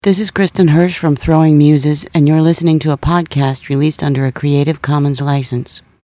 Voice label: podcast promo; cc podcast promo